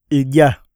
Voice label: eat, iyasa